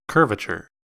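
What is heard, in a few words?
"curvature", US English; pronunciations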